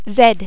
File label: "z", french